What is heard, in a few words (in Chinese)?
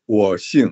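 我姓, Chinese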